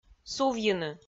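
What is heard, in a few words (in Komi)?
"сувйыны", Komi, female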